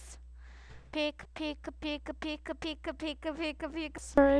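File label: "pick", spoken word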